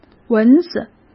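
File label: "蚊子", Mandarin Chinese; chinese-flashcards; hsk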